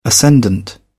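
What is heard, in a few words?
"ascendant", British English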